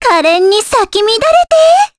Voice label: Vox Skill jp; Cassandra; kingsraid